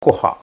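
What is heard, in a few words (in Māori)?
"koha", Maori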